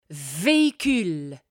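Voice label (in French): "véhicule", French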